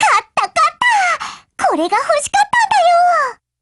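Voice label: WIN Japanese, Voice; GF Alarm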